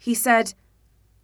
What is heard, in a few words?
direct dull